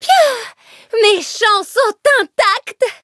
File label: victory, fr; cookierunwiki